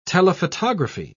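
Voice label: "telephotography", British English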